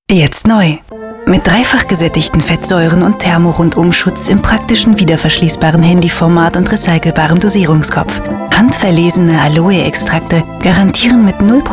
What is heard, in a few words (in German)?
deutsch frauen werbung; sprecher